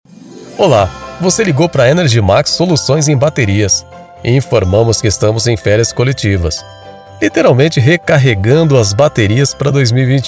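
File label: br, Brazilian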